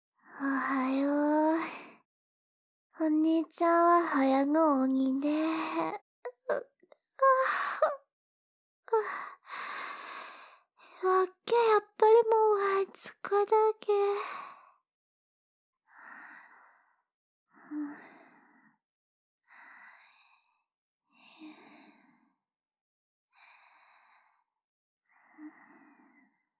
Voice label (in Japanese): 目覚まし用アラーム, 津軽弁; なないろボイス; 津軽弁妹ちゃん